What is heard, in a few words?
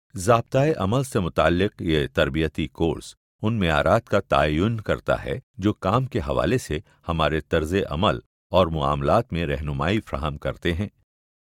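Urdu, Corporate; GoLocalise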